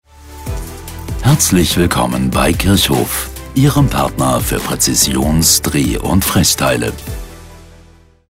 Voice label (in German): Ansage vor Melden